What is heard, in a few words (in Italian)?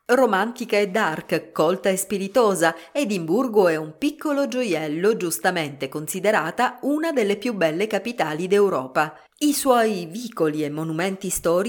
voice audioguida edimburgo